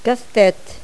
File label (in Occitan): "casteth", Gascon